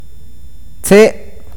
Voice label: palo, awakateko

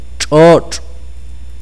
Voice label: tierra, akateko

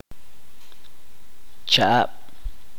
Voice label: "dos", Chuj

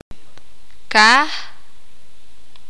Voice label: "cielo", sakapulteko

